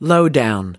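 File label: "lowdown", US English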